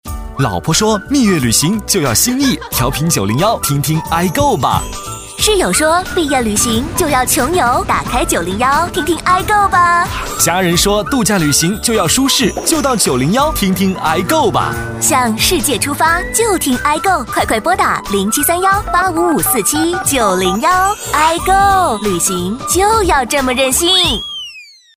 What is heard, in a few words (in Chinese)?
电台包装 青春活力, 男; 向世界出发